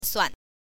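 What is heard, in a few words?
"suan", Mandarin Chinese, neutral tone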